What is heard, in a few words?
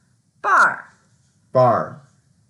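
"bar4", Mandarin Chinese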